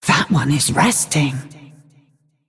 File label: female dragon